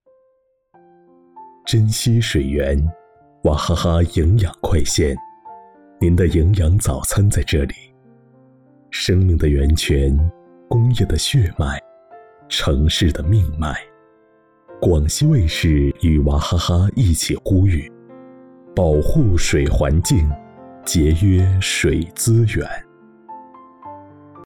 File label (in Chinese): 时尚磁性